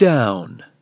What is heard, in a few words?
LoFi Words, LoFi Vocals